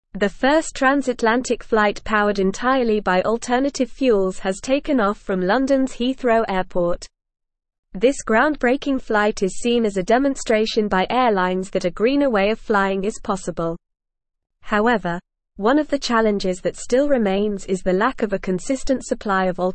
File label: English Advanced NORMAL Reading; English Newsroom; First Transatlantic Flight Powered by Alternative Fuels